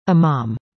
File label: "Imam", english; indonesian boy names